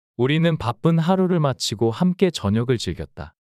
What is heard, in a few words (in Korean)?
문장 남자; 우리는 바쁜 하루를 마치고 함께 저녁을 즐겼다